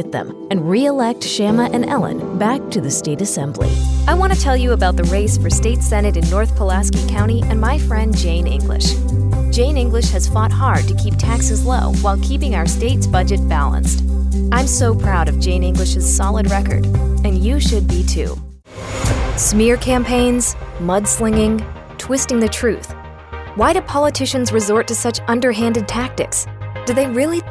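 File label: Democrat Voiceover, Female; Political DEMOCRAT Voices English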